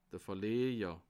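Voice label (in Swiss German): "verlajer", Bas Rhin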